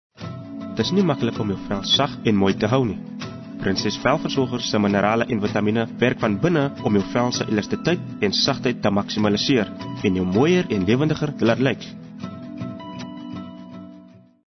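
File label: Afrikaans Soft Sell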